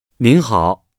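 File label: "您好 nin hao", Chinese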